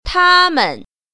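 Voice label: "ta1men0", Mandarin Chinese Tone Pair Drills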